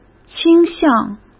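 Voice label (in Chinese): "倾向", Mandarin Chinese; hsk; chinese-flashcards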